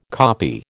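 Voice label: "copy", American English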